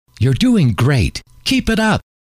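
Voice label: you're doing great keep it up, male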